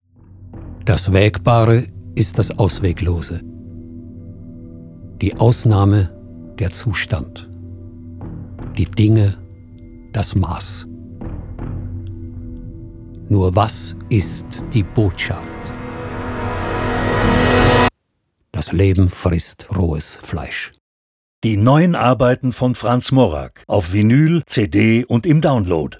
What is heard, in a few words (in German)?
Radiospot